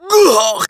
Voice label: macho damage